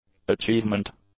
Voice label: "achievement", English; nouns

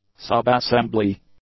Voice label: "subassembly", english; nouns

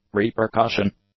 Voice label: "repercussion", English; nouns

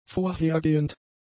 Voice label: "vorhergehend", German; adjectives

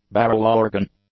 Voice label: "barrel-organ", English; nouns

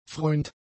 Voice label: "freuend", German; adjectives

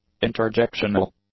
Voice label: "interjectional", English; adjectives

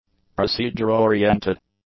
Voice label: "procedure-oriented", english; adjectives

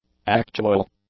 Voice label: "actual", English; adjectives

